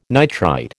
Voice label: "nitrite", American

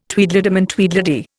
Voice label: "Tweedledum and Tweedledee", Indian

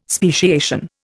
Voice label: "speciation", Indian

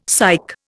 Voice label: "psych", Indian; Dictionary

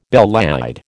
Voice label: "belle laide", American

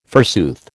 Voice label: "forsooth", American

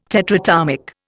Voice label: "tetratomic", Indian

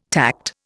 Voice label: "tact", Indian

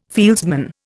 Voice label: "fieldsman", Indian

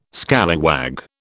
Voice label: "scallywag", British

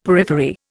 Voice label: "periphery", Indian; Dictionary

